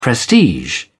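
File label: "prestige", British English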